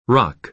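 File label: "rock", American English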